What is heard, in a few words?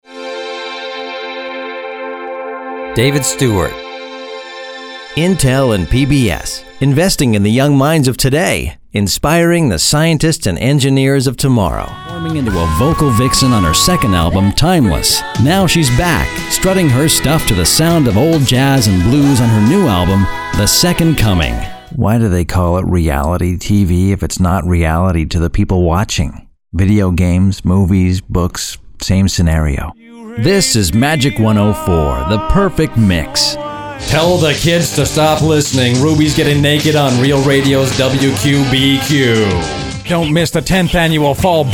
promo